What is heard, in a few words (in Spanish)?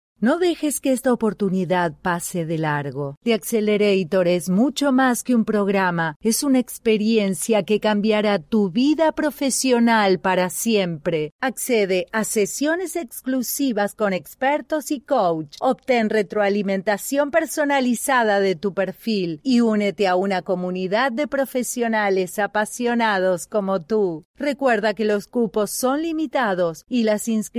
pitch de venta; the accelerator